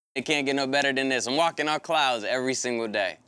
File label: testimonial; Copper Fit Zen Step